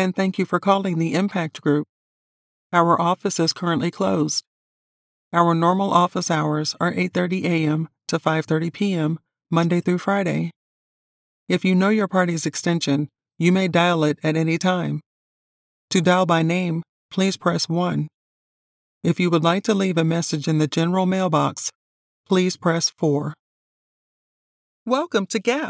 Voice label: Telephone On-Hold